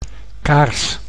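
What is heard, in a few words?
"kaars", Dutch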